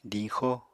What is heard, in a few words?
"diñxo", Apatani